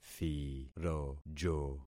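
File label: "thirojo", concatenated syllables; Affixing experiment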